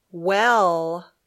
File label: "well", English